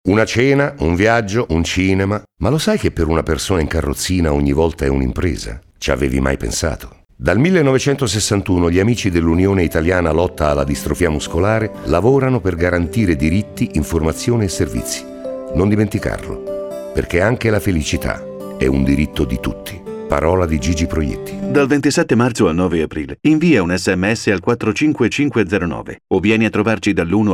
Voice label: Spot Audio; UILDM